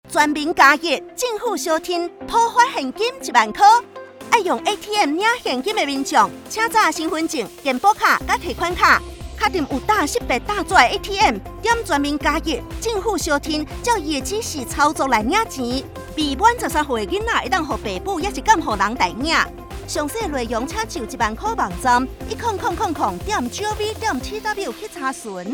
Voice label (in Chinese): 政府相挺 領現篇廣播 台語版廣播; 全民+1 政府相挺ATM領現篇廣播-台語版; 政策細節篇